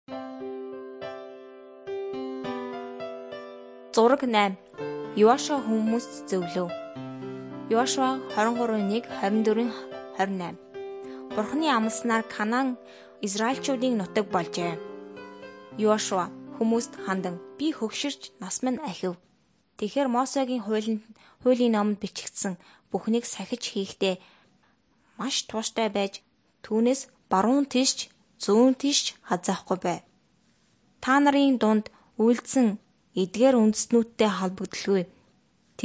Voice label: mongolian